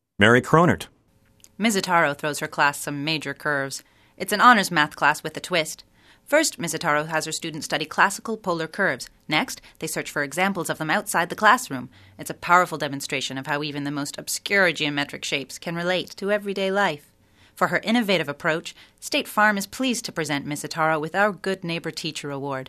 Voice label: commercial female